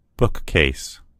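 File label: "bookcase", American English; gcompris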